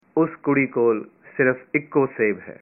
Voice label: she only has one apple, punjabi